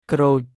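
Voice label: "ក្រូច" Khmer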